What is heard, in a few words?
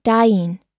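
"dying", American English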